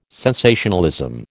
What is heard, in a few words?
"sensationalism", American